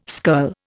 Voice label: "skirl", Indian